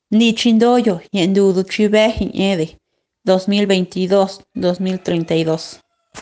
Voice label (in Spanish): Decenio cuicateco